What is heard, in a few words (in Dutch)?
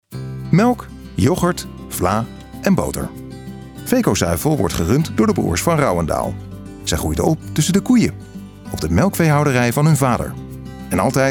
narratief